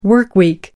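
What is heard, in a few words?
"workweek", British English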